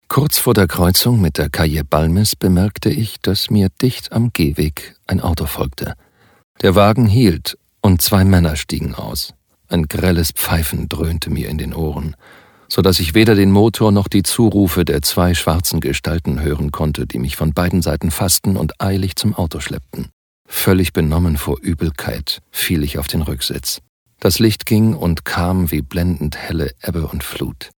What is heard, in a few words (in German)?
DE Hoerbuch; Zarfon